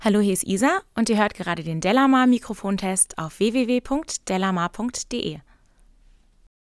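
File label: speech female; justin jm440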